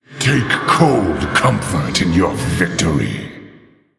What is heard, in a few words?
Male Orc Concede; Thrall Deathknight